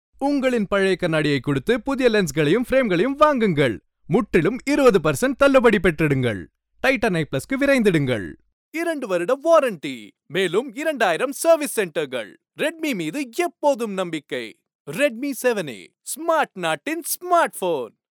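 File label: Tamil Sri Lanka male voice over, sales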